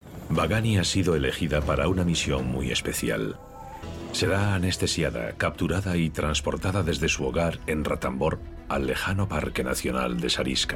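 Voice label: Spanish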